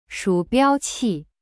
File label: "shu3biao1qi4", Chinese; cyberchinese